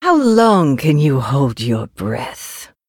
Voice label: Human Emote Play